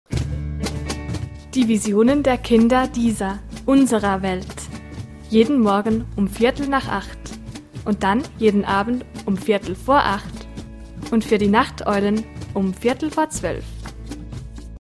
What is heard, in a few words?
jingle Deutsch; Visionen